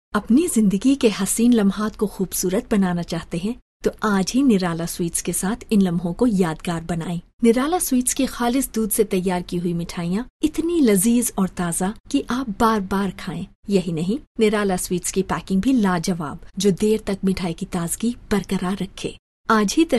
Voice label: Urdu narrative; voice-over